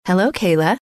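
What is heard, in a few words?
hello, female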